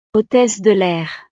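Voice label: "hotesse de lair", French; profesiones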